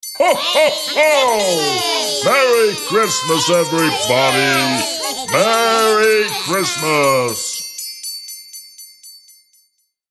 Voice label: Santa And Elves, Cute elves with Santa wishing a ‘Merry Christmas’ with jingle bells.; Cartoon Voices